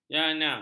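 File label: "jana", Malay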